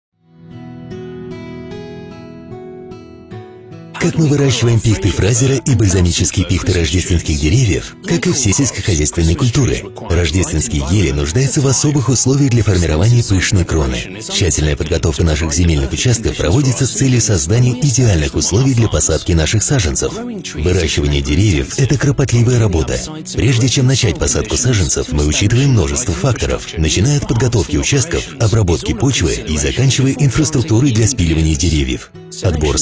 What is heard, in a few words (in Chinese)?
俄语男 产品同步解说